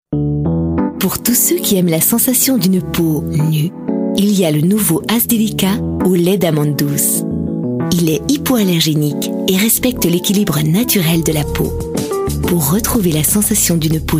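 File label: French commercial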